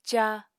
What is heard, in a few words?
"caa", Apatani